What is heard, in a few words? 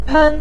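"pen" neutral tone, Mandarin Chinese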